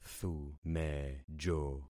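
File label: "thumejo", concatenated syllables; Affixing experiment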